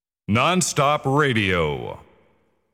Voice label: Nonstop Radio, jingles